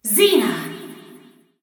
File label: Voice Announcer; Switch; Zeena